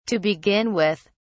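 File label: "to begin with", British English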